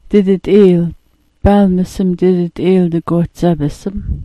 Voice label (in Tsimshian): "didit'iilt", Sm'algyax